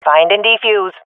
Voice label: hear bomb, swat fem; vo; Counter Strike Model Pack; CS:GO

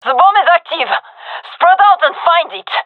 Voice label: hear bomb, gendarmerie fem; vo

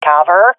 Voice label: request coverme, swat fem; vo; agents; Source Model Packs; Counter Strike Model Pack; CS2

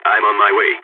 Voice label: on my way, balkan

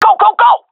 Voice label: request move, seal fem; vo; Counter Strike Model Pack; CS:GO

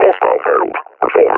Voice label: taking fire, combine officer; Half Life Alyx; Half Life Model Pack

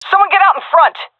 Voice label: request leader, seal fem; vo; Counter Strike Model Pack; CS:GO

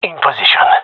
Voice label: in position, gendarmerie male; vo

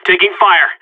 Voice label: radio taking fire, seal, player vo; Counter Strike; CS:GO

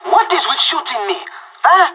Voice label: friendly fire, pirate; Counter Strike Model Pack; CS:GO

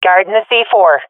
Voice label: at loosebomb, swat fem; vo; Counter Strike Model Pack; CS:GO

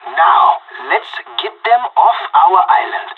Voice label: radio lock n load

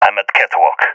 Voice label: at catwalk, balkan; vo; balkan epic

